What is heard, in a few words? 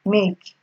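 "make", Nigerian Pidgin, female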